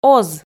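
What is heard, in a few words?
"оз", Komi, female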